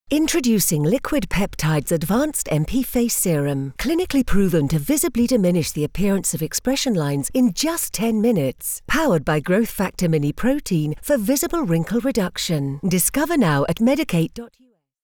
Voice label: tv spot for skincare; medik8

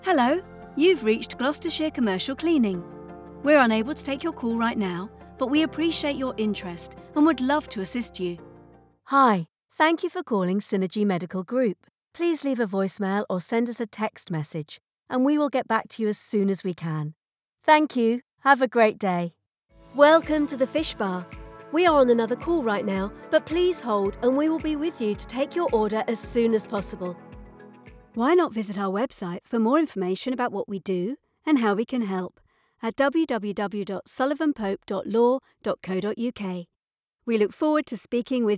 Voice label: ivr

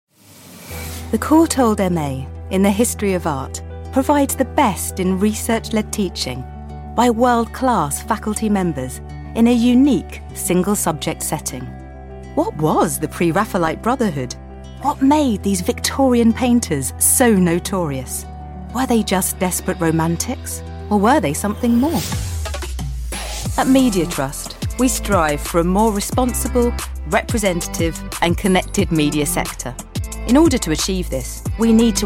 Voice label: voiceover narration

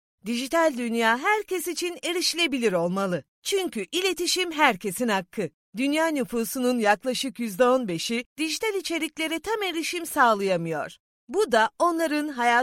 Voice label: trailer video narration

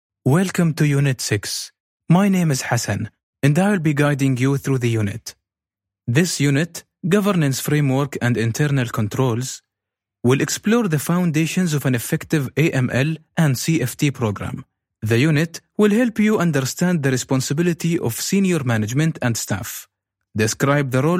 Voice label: khaliji english emirati english

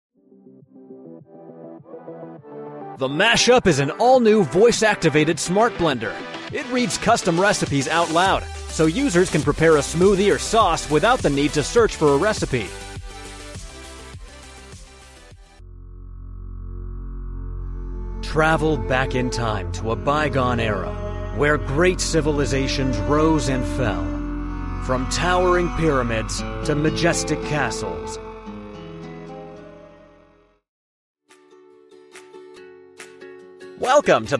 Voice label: commercial english

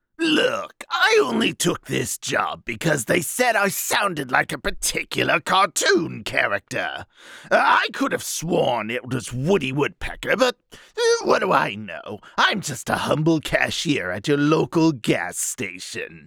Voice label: joker soundalike

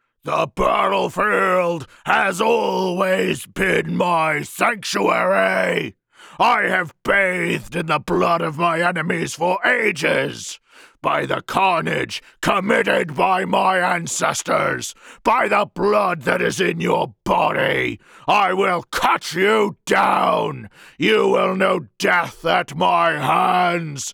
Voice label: orc

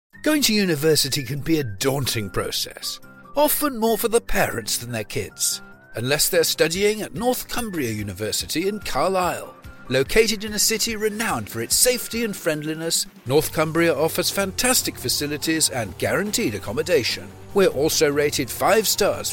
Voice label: commercial

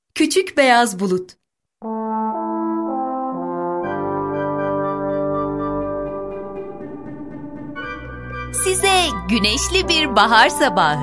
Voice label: audiobook child content

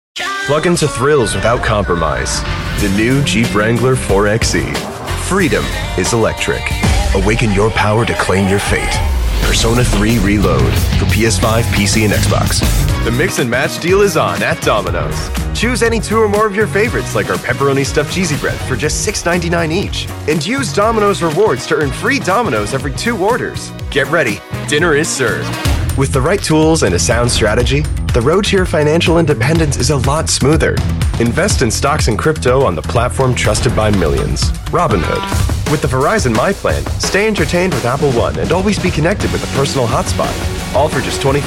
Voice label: commercials

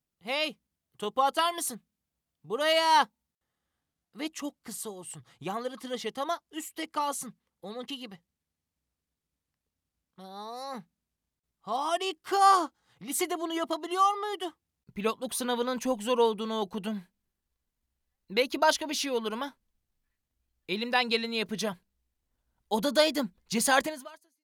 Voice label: boy

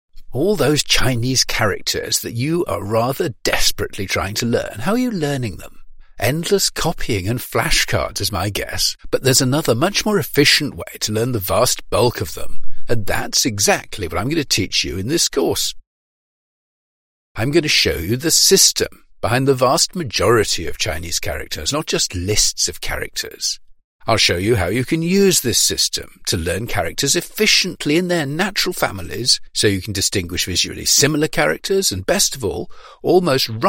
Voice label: elearning

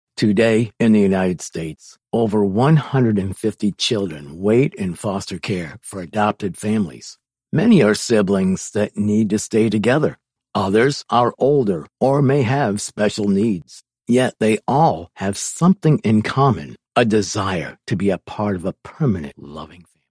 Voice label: radio psa

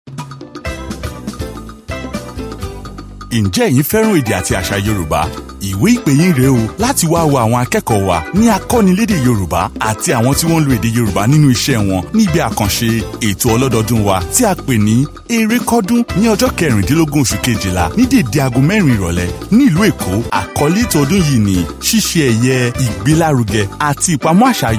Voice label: yoruba; akonilede

